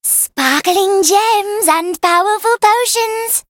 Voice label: en-US; merch; Fate The Cursed King; GEM POTION